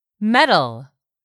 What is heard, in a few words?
"METAL", English; 3000 words